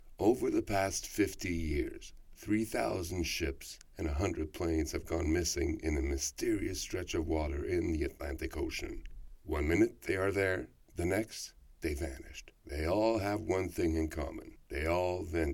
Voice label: bermuda triangle naration